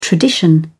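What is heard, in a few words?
"tradition", British English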